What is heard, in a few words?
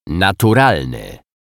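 "naturalny", Polish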